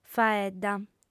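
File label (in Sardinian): "faedda", female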